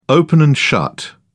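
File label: "open and shut", British English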